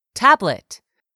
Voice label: "TABLET", english; 3000 words

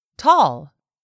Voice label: "tall", English; 3000 words

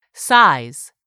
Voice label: "SIZE", english; 3000 words

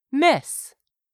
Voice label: "MISS", english; 3000 words

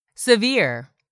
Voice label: "severe", English; 3000 words

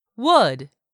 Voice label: "wood", English; 3000 words

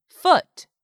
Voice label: "FOOT", English; 3000 words

